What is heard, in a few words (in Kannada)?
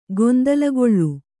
"ಗೊಂದಲಗೊಳ್ಳು", kannada